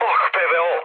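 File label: Serbian damaged aa mood med, speech; War Thunder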